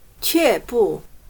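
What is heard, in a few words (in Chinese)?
"却步" Mandarin Chinese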